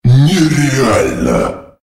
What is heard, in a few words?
"killing spree", female